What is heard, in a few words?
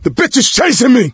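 witch chasing, voice, male; Left 4 Dead 2; coach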